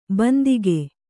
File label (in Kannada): "ಬಂದಿಗೆ", Kannada